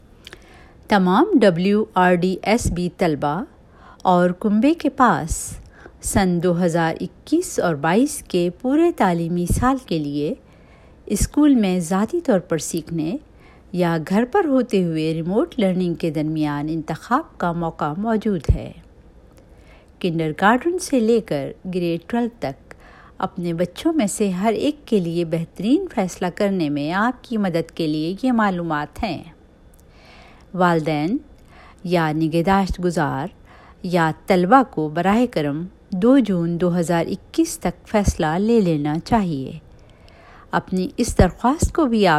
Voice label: Urdu